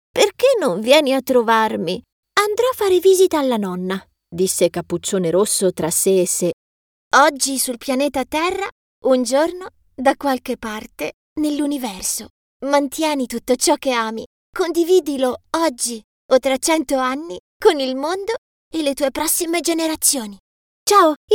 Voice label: Italian Mix Readings

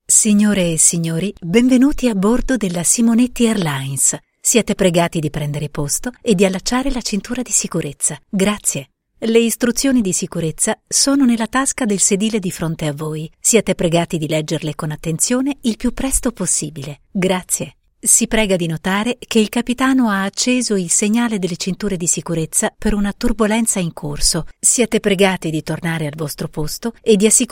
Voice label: Annunci Aereo

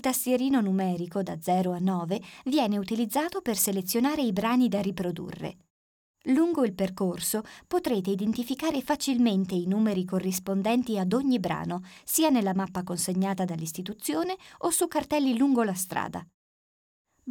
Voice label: Audioguida

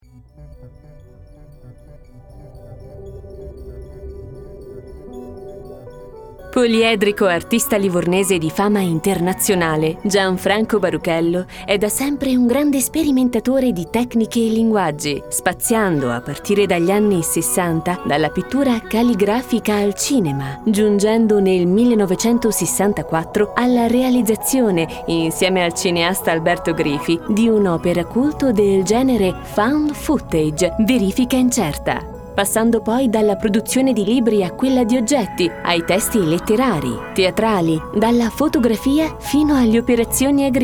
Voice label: documentario; Baruchello

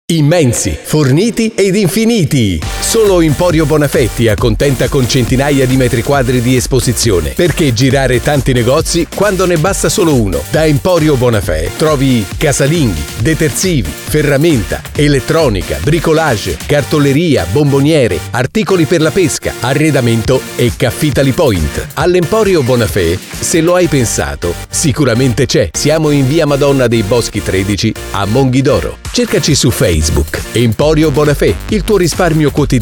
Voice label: SPOT; EMPORIO BONAFE

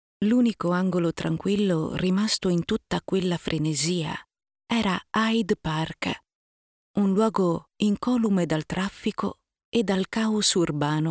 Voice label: Bedtime Story Morbido e avvolgente

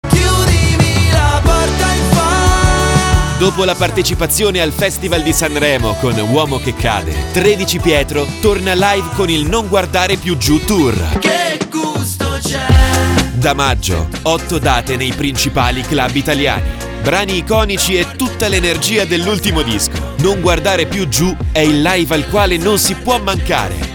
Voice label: SPOT

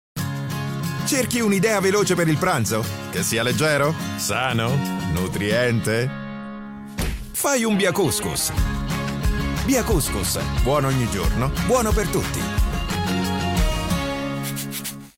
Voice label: Spot; BIA Cous Cous; in onda su la 7 e la 7D

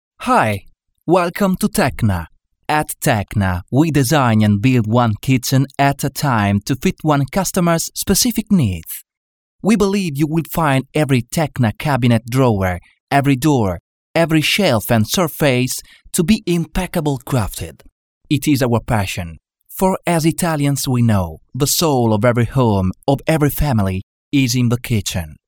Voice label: lettura inglese

